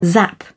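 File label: "zap", British English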